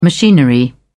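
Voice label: "machinery", British English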